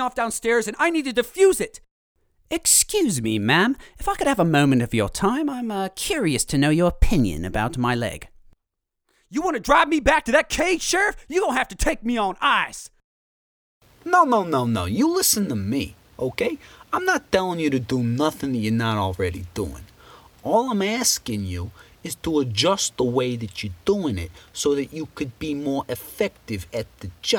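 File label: Characters voice over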